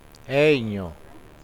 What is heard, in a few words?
"sister", uitoto